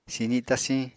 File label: "sizi tasiñ", apatani